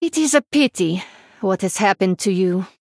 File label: Female Draenei Idle